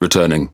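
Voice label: Pirate Speech Pilots Fighter Land, English pirate; World Of Warships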